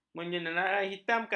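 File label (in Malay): "menyenaraihitamkan", Malay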